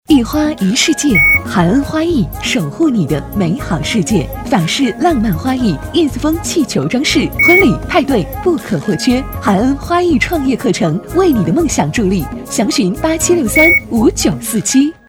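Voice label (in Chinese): 试音, 女 普通话 促销广告配音 女性知性配音 年轻时尚配音; 菡恩花艺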